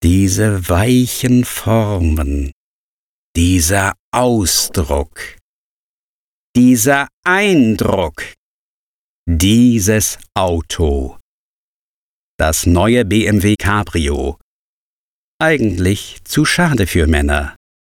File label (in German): BMW Werbung